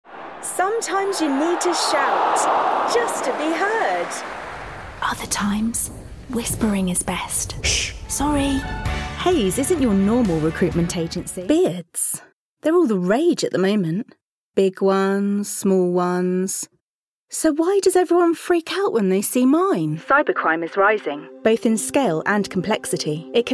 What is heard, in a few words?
Commercial